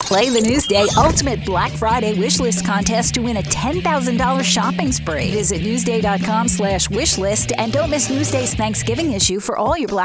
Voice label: SHOPPING SPREE CONTEST PROMO; WBAB